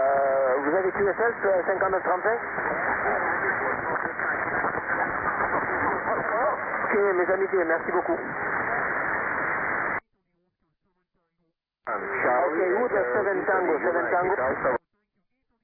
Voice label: CQWW SSB USB 28MHz